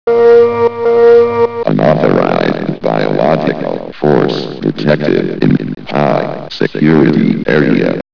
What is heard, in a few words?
announcement; sven; sector19